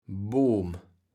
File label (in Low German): "Boom", kaevels platt